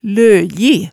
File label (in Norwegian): "løji", hemsedalsmaalet tale